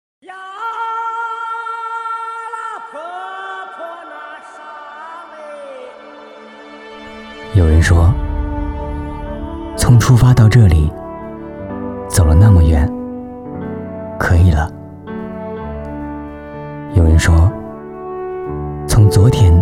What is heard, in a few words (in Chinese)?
旁白; 道路